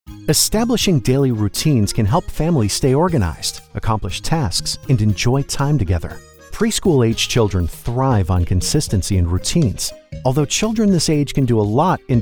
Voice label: e-learning Parental Training; e-learning Voiceover Demo